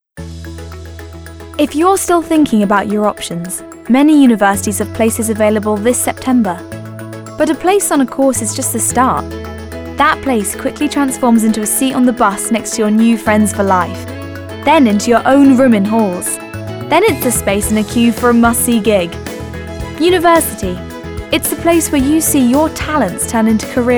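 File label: Narration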